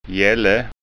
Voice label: "hjalle", skanska ord

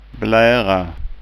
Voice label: "blara", skanska

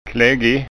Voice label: "kleggig", skånska ord

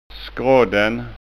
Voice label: "skradden", Skånska

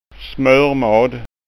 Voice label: "smormad", skanska ord

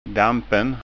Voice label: "dampen", skanska

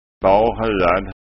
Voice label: "barhuad", skanska ord

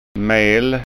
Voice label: "mel", skånska

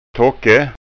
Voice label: "tocke", skanska

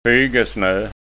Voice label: "fygesno", skanska ord

